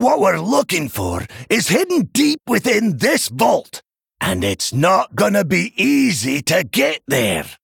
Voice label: Male Dwarf; Brann Bronzebeard